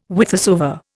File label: "whithersoever", Indian